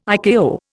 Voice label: "ICAO", Indian